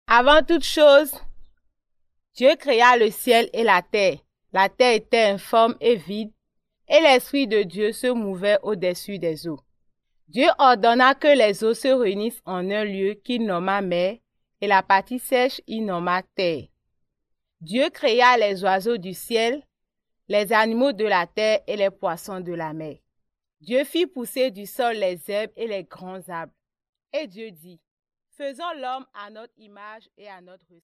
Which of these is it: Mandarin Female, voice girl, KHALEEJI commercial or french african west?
french african west